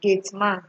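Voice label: "gateman", Nigerian Pidgin, female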